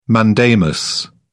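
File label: "mandamus", British English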